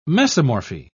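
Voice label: "mesomorphy", British English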